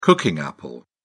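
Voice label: "cooking apple", British English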